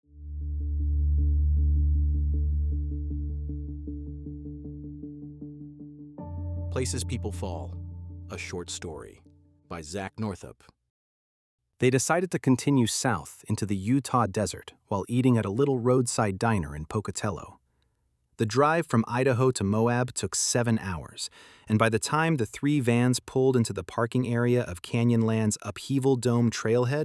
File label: Read Aloud; Places People Fall; a gripping desert survival thriller